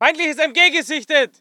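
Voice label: voice german infantry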